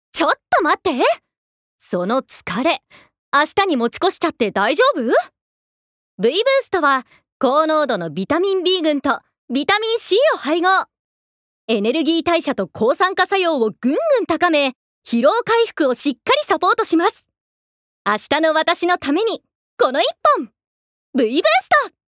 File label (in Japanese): ナレーション